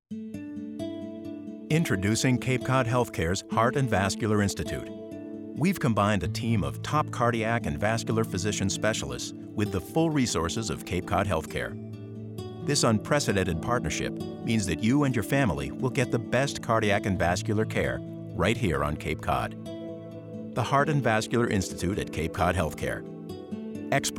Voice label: RADIO